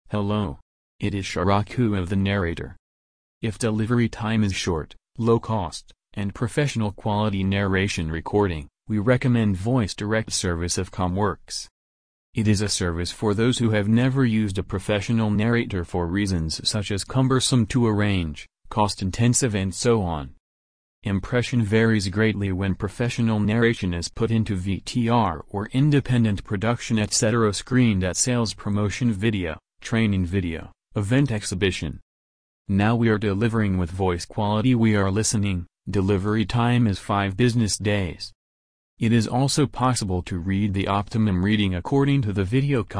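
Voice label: speech English male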